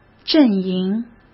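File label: "阵营", Mandarin Chinese; hsk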